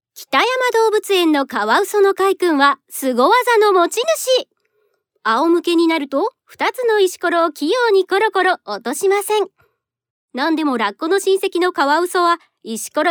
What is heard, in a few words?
narration